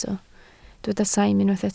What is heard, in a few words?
"saim", Welsh